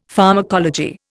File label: "pharmacology", Indian; Dictionary